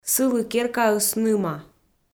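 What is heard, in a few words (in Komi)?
"сылы керкаыс ныма", Komi, female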